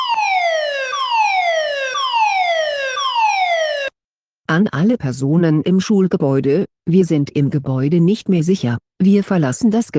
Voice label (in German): feueralarm ansage schule